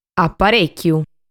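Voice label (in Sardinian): "Aparèchiu", Sardinian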